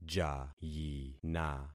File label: "jayina", concat syllables; Affixing experiment